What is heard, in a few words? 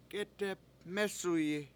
"k7Eteb mEsuyi", washo; bottle split open